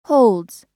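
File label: "holds", American English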